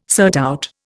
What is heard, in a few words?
"surtout", Indian